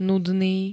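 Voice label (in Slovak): "nudný", Slovak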